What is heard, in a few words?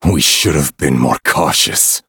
defeat, en; cookie run